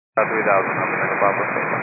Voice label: 122 925MHz, scanner radio